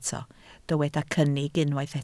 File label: "cynnig", Welsh